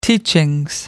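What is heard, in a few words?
"teachings", British English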